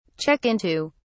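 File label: "check into", British English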